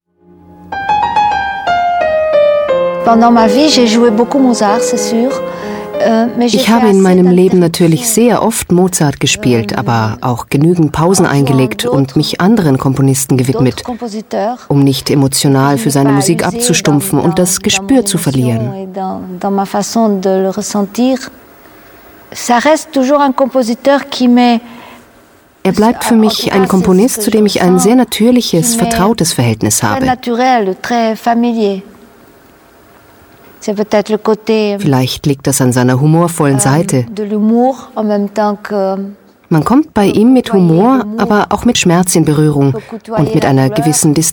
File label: voice over; arte pianistin